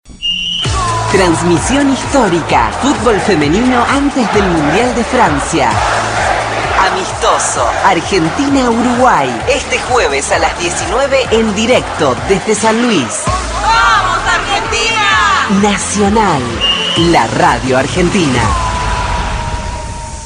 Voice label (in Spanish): Promo futbol femenino